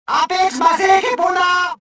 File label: cover, rodian